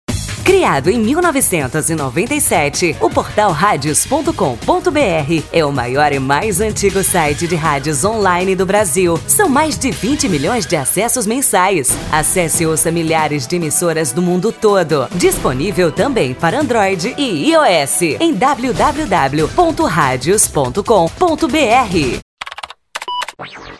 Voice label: FEM